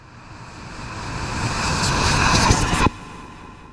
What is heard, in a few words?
whispers; ambience; dfcaverns